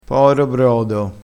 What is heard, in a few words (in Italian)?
"poro brodo", crespinese, vocabolario dialettale